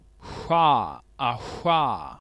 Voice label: voiceless dorso palatal velar fricative, phoneme